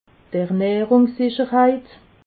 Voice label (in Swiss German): "ernahrungssicherheit", alsace